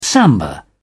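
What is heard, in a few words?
"samba", British English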